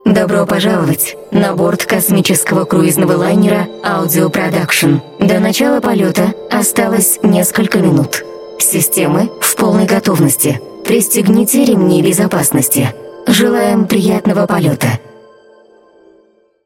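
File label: robots female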